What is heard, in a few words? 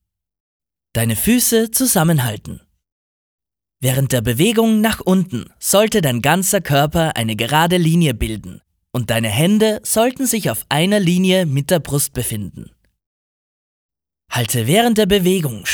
Explainer Video Push up